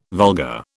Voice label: "vulgar", British

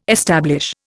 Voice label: "establish", Indian

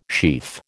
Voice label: "sheaf", American

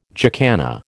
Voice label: "jacana", American

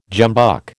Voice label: "jumbuck", American

